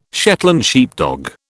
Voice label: "Shetland sheepdog", British